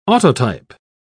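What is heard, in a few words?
"autotype", English, British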